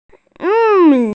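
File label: "yu4mi3", Mandarin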